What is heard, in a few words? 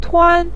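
"tuan", Mandarin Chinese syllable, neutral tone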